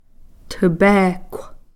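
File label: "shinny-ball", Takelma word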